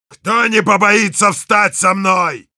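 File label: help me defend Russian; Heavy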